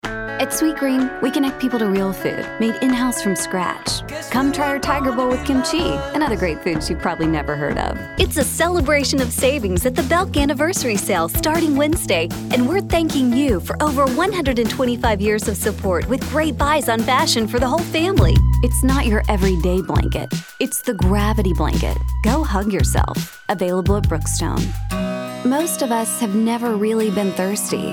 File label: Commercial